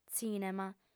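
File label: "tzìnema", Sardinian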